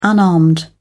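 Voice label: "unarmed", British English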